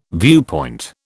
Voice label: "viewpoint", British

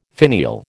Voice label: "finial", American

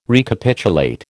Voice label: "Recapitulate", American

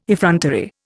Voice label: "effrontery", Indian

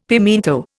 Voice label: "pimiento", Indian; Dictionary

